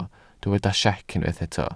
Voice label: "siec", Welsh